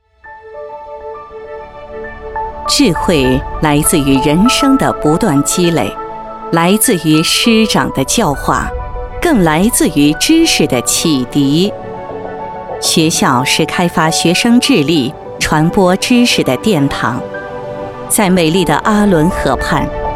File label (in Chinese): 专题 学校 成熟; 阿荣旗第二中学